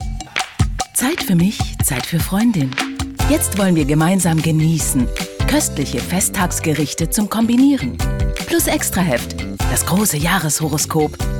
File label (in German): Spots OFF, frauen; Freundin